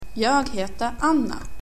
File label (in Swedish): jag heter anna, swedish Greetings